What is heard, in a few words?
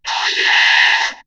Vocoder Oh Yeah, robot vox; X-Static Goldmine